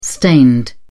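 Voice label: "stained", British English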